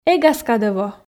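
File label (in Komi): эг ас кадӧ во, Komi, female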